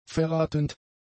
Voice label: "verratend", german; adjectives

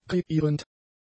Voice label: "kreierend", German; adjectives

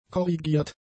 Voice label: "korrigiert", German; adjectives

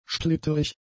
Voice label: "splitterig", German; adjectives

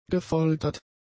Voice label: "gefoltert", German; adjectives

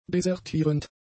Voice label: "desertierend", german; adjectives

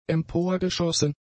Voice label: "emporgeschossen", german; adjectives

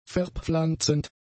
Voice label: "verpflanzend", german; adjectives

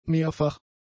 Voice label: "mehrfach", German; adjectives

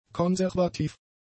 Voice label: "konservativ", German; adjectives

